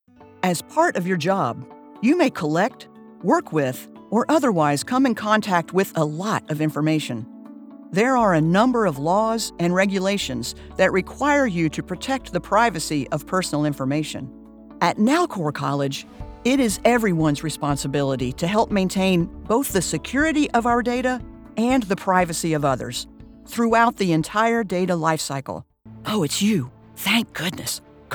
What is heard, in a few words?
E-Learning